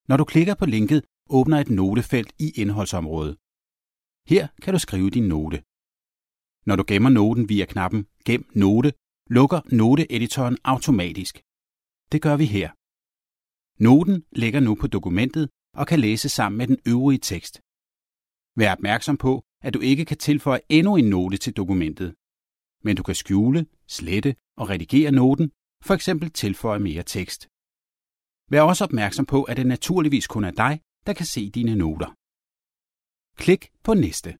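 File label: danish male narration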